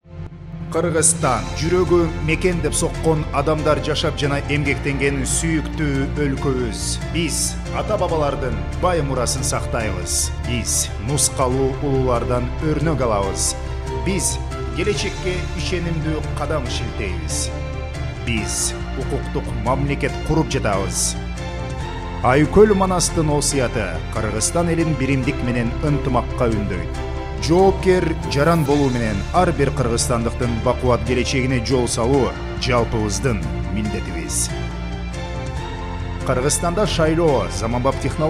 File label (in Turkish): Kirgizca Erkek; seslendirme